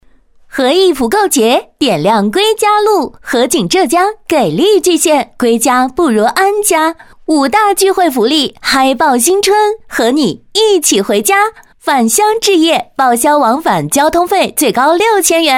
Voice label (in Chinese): 广告 热情激情